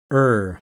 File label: rhotic mid central, IPA sounds